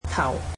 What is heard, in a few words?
"head", Cantonese